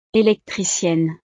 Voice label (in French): "electricienne", frances; profesiones